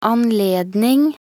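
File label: "anledning", Norwegian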